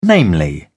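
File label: "namely", British English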